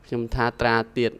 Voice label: careful traa, khmer trills